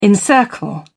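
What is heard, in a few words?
"encircle", British English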